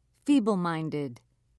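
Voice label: "feebleminded", British English, dictionary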